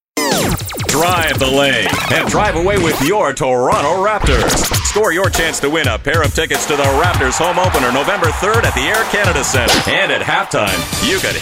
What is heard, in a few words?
english canadian promos